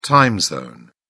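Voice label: "time zone", British English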